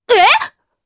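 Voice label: Vox Sur Japanese; Naila; kingsraid; jp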